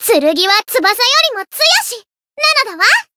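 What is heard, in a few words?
win, Japanese; thillelille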